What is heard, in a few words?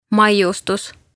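"maiustus", Estonian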